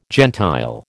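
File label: "gentile", American English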